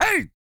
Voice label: COUNT, Vocal Planet, R&B & Dance, COUNTING; ILIO